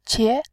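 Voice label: "qie", Mandarin Chinese, second tone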